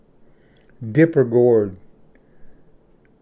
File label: "ᎦᎾᏤᎢᏗ", Pronunciation; dipper-gourd